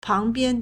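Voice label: "pángbiān", Chinese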